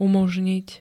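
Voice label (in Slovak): "umožniť", Slovak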